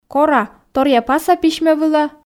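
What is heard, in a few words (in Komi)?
Komi, кора торъя паса письмӧ вылӧ, female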